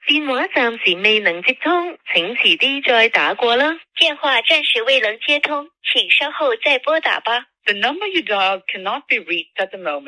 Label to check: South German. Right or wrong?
Wrong